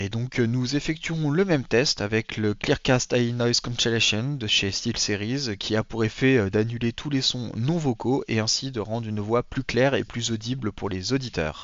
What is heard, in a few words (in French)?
test micro casque steelseries arctis nova pro wireless avec reduction du bruit